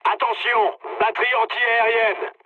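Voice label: French damaged aa mood low; War Thunder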